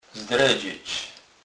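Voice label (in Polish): "zdredzec", Kurpie dialect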